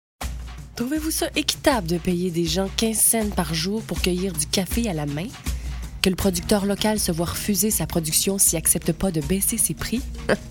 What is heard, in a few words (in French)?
voix femmes; starbucks